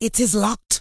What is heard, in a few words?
Troll Female error item locked